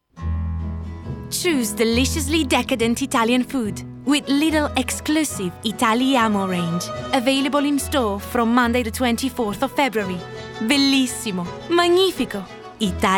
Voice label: female, Italian, English with Italian accent commercial; lidl